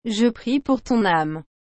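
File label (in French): Je prie pour ton âme, French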